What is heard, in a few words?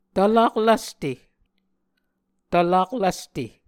"tvlak lvste", Muscogee